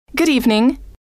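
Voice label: good Evening, female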